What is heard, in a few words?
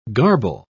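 "garble", British English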